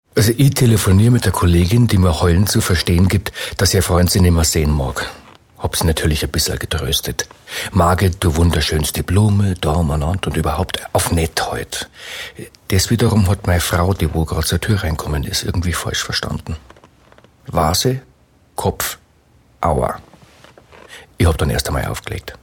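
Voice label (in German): bayerisch, Dialekt, Sprecher, Männer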